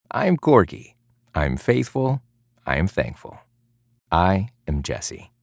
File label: men mini demo